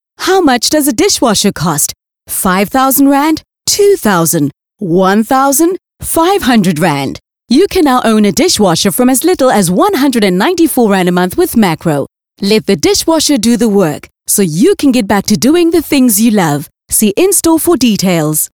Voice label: Dishwasher VO faster take; Makro

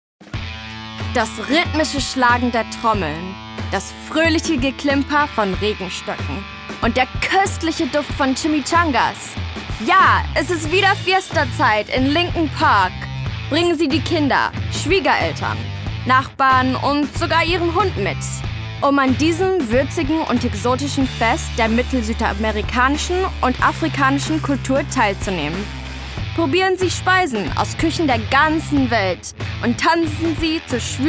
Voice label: Maia Festival German

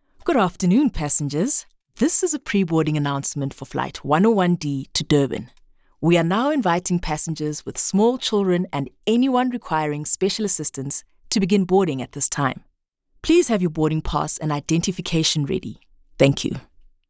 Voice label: English Airport Announcement

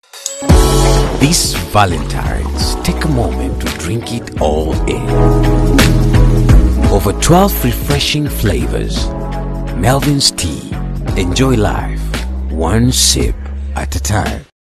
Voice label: ENGLISH VALENTINES AD; MELVINS TEA KENYA

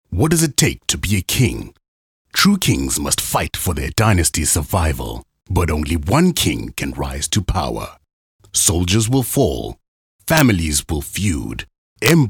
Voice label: Trailer Promo

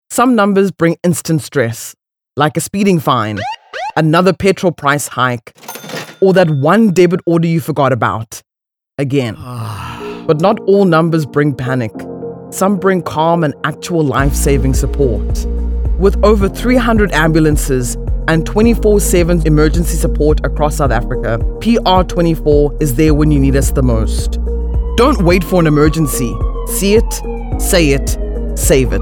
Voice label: Conversational Concern

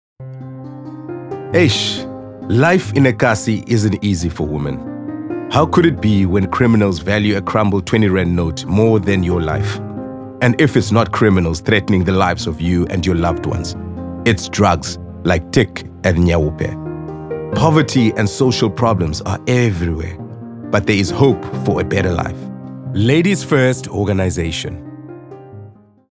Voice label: SOFTER SELL SERIOUS

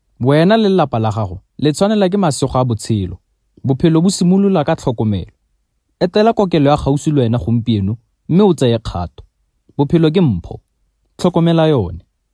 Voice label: Setswana

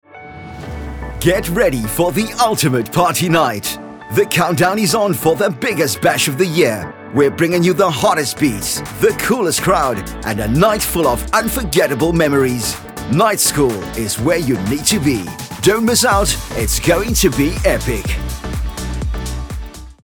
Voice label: HARDSELL PROMO

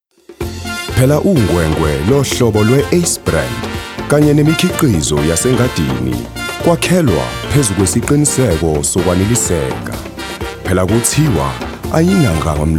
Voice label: Zulu; Siyajabula

